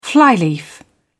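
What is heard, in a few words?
"flyleaf", British English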